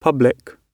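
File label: "public", British English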